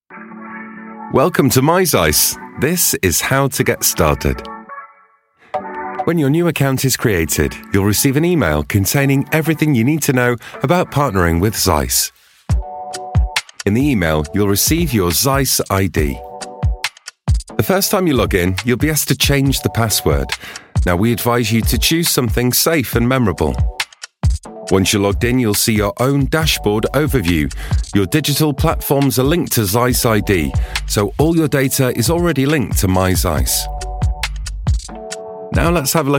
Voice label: training video